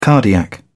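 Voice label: "cardiac", British English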